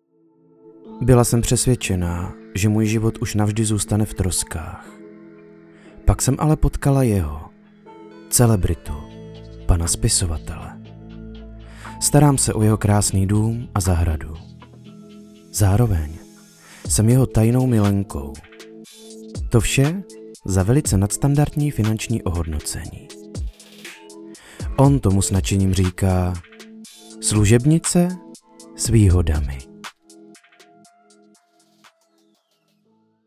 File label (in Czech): Upoutávka; cesky voiceover